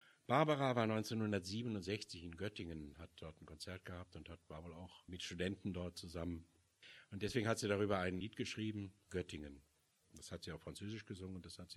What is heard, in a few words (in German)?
ansage; abgesang